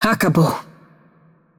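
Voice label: voice over, Brazilian Portuguese; Game Outro; bloom minigame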